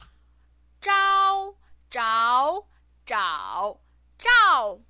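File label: "zhao", Mandarin Chinese pinyin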